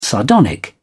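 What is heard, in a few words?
"sardonic", British English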